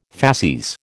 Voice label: "facies", American